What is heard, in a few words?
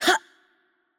VO Attack Light, es ES; bloom minigame